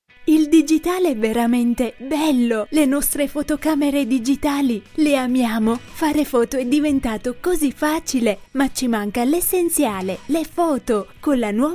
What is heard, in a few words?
TV Adverts